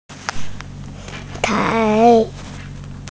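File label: "tai2", Mandarin Chinese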